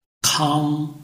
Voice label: "kang1", Chinese

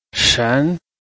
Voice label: "shen2", Chinese

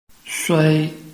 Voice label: "sui1", Chinese

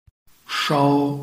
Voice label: "shou1", Chinese

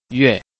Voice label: "yue4", Chinese

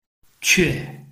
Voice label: "que4", Chinese

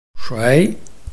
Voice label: "shui2", Chinese

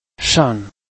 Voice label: "shan4", Chinese

